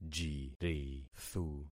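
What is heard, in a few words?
"jirithu", concatenated syllables; Affixing experiment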